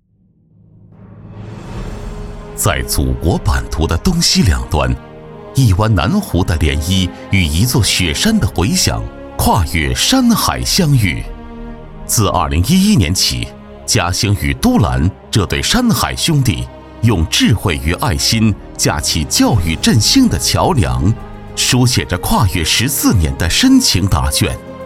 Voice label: 大气浑厚

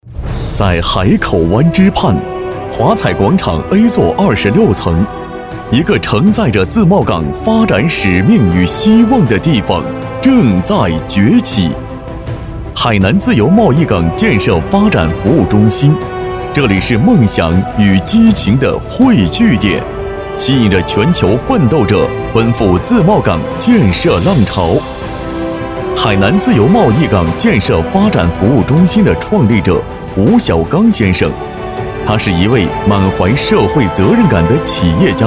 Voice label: 激昂宣传

